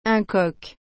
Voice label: "Un Coq", French